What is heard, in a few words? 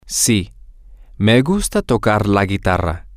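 yes I like to play the guitar, Spanish, male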